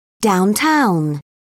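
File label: "downtown", British English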